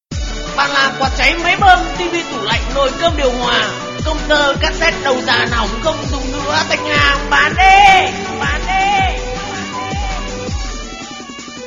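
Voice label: sound of street vendor calling; Tieng rao ban la quat chay may bom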